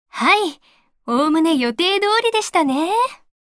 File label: Voice victory